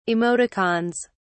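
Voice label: "emoticons", British English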